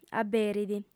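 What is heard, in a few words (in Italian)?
"aberit", sarda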